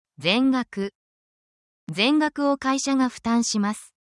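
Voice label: Japanese word, essential Japanese phrases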